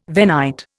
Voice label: "Venite", Indian